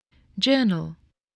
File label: "journal", English